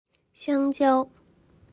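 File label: "香蕉", Chinese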